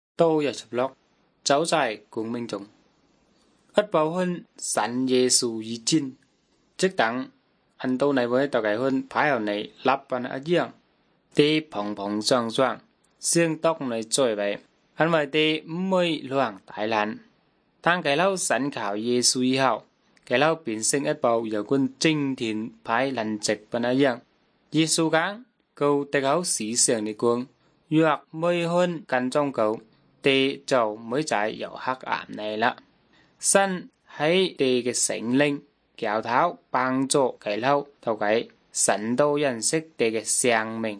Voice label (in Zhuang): good news zhuang nanning fushu